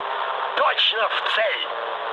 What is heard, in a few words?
bomb success, mood low, speech ru; War Thunder